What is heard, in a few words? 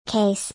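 "case", English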